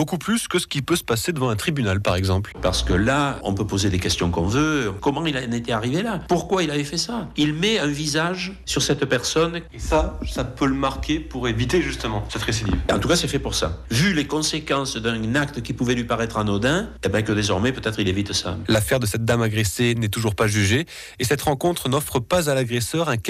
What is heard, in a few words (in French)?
Justice Réparative reportage